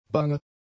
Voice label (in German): "bange", German; adjectives